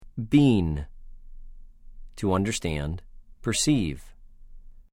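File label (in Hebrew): "בִּין", Hebrew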